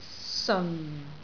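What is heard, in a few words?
"seon4", Cantonese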